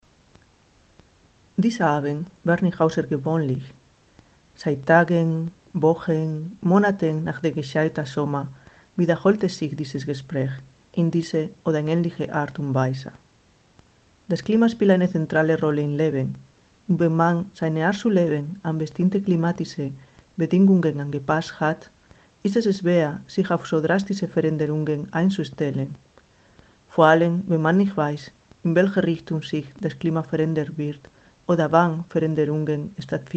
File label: German; wissenschaftliche kurzgeschichten